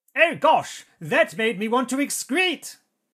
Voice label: battle chatter